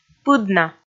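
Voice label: "пыдна", Komi, female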